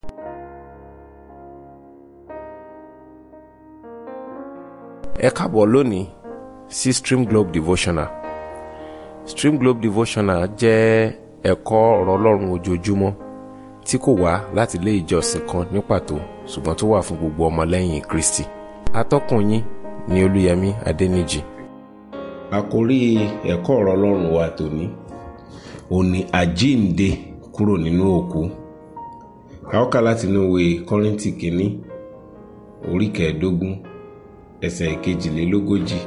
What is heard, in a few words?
Devotional Audio Yoruba